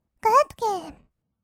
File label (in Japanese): "グッドゲーム", kawaii voice; ASMR; 色々なワンショット; 1200 kawaii voice!!